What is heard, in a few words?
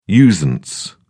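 "usance", British English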